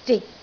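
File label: "zik6", Cantonese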